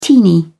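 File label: "teeny", British English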